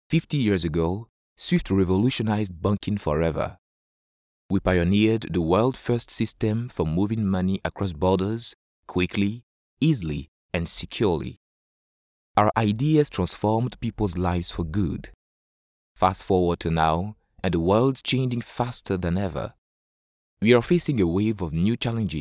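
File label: African English, male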